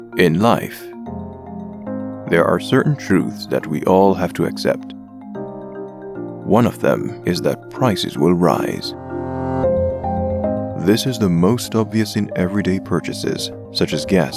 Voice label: Authoritative VO

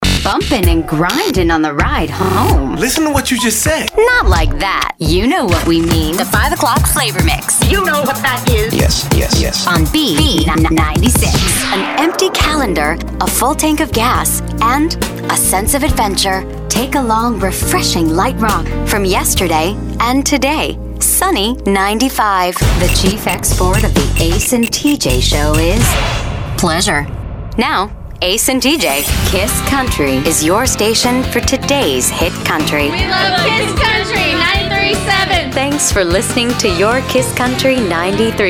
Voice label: Imaging

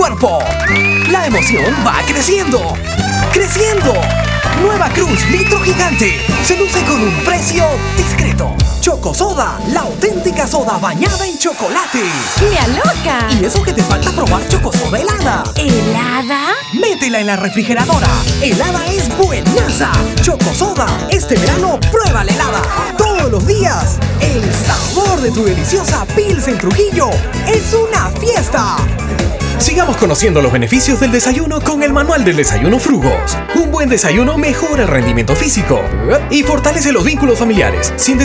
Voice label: Promo Reel

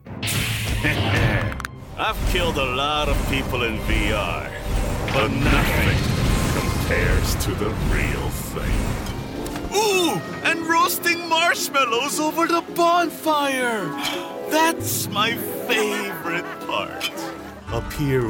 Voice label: English Character

